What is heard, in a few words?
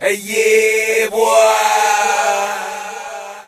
"ah yeah boy", voice; ooh ahh